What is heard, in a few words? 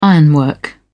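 "ironwork", British English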